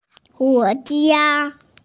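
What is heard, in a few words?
"guo2 jia1", Mandarin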